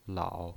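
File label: "lao", pinyin, Mandarin Chinese, third tone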